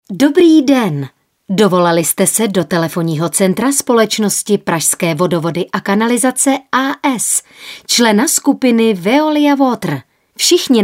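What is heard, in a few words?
Female Czech IVR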